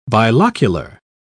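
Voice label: "bilocular", English, uk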